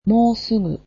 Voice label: "soon", Japanese